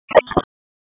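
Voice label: Police Southeast District Dispatch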